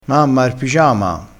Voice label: "che dici", crespinese

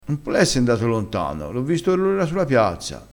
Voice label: "or ora", crespinese; vocabolario dialettale

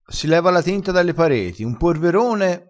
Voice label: "sporvera", vocabolario dialettale crespinese

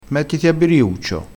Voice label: "biriuccio", vocabolario dialettale crespinese

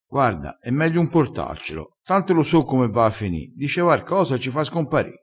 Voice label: "scompari", vocabolario dialettale

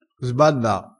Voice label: "sballa", crespinese

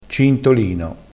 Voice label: "cintolino", crespinese

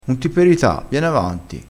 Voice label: "peritassi", crespinese

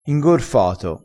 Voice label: "ingorfato", crespinese dialettale

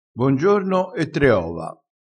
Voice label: "bongiorno", dialettale

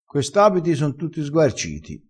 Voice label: "sguarcito", crespinese

